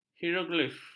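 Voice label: "hieroglif", Malay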